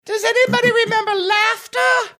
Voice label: saying does anybody remember laughter falsetto